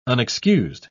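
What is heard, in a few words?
"unexcused", British English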